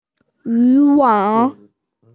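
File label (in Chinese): "yu2wang3", Mandarin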